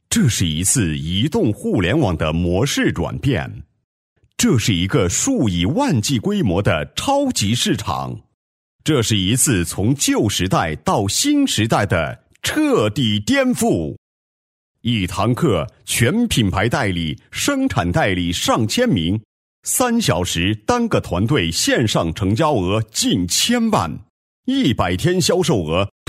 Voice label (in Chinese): 男 专题 企业宣传片; 济南特仑俏